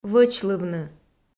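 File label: "вӧчлывны", Komi, female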